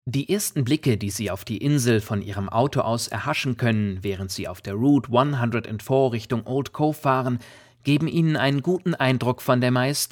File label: Tour Narration in German